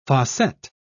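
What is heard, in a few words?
"fossette", English, British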